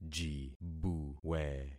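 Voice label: "jibuwe", concatenated syllables; Affixing experiment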